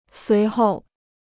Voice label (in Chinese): "随后", Chinese